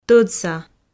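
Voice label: "тӧдса", Komi, female; audio dict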